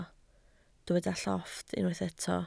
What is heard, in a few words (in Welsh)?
"llofft", Welsh